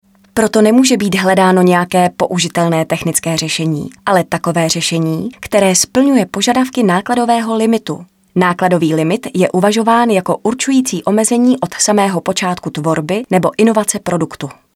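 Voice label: Female Czech e-learning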